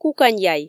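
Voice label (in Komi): "кукань яй", Komi, female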